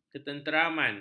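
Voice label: "ketenteraman", Malay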